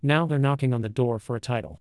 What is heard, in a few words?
baseline conversational male